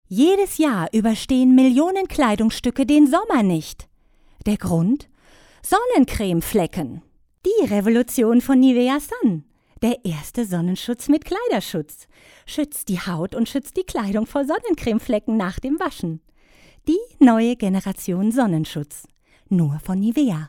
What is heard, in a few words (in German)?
Werbung